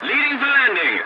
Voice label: voice message landing, english; War Thunder